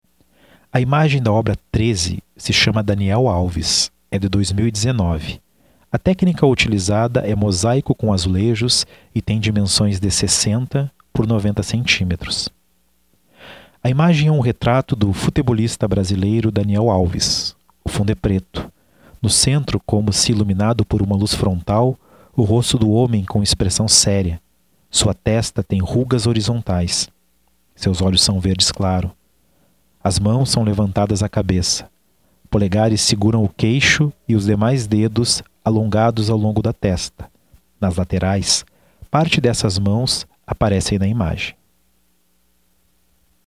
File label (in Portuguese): audiodescrição; galeria virtual